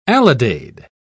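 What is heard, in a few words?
"alidade", British English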